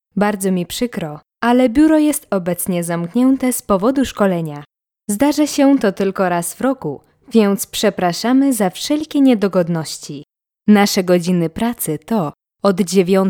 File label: Polish, Female